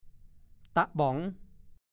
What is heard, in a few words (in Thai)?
ตะบ๋อง, ภาษาโคราช; กระบอง